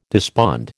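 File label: "despond", American English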